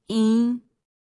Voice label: Phoneme Female; Commonscript; Lynguistykon